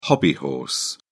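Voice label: "hobbyhorse", British English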